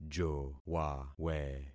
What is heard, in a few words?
"jowawe", concat syllables; Affixing experiment